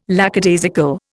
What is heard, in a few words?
"lackadaisical", Indian